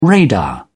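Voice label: "radar", British English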